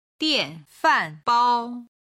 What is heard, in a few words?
"dianfanbao", Chinese